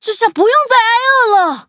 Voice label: levelup zh-hant; cookierun